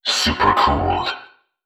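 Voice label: "supercooled", synthesized voice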